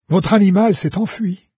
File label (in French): pet flee, VOICES fr NARRATOR; Fate